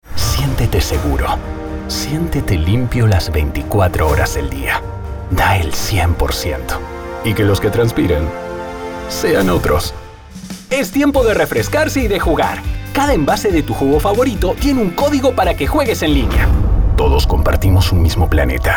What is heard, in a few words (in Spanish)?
Institucional